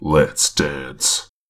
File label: Vocal Lets Dance, exclamation